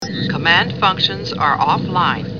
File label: computer voice, command functions offline